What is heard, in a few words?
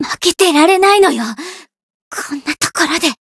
voice jp